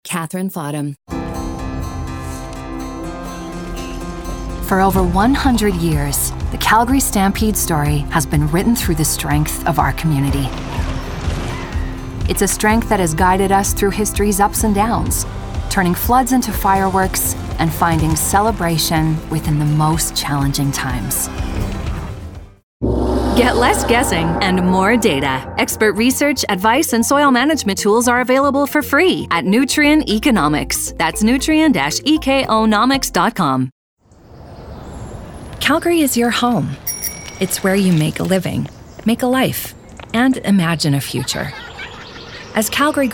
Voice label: Commercial